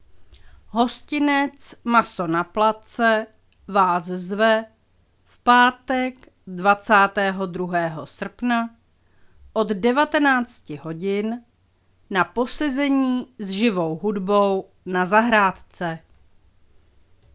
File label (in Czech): hlášení hudba